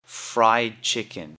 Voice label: "fried chicken", English; Common Prepared Foods